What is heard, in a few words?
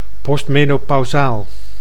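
"postmenopauzaal", Dutch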